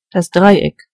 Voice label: "triangle", de; gcompris